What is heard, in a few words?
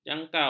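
"jangkau", Malay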